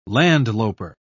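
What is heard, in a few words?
"landloper", British English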